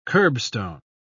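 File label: "kerbstone", British English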